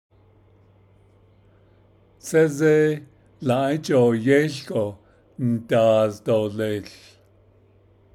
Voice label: When you're hauling a lot of gravel it beco, Navajo; ’áłt’ąą after all, in spite of unfortunately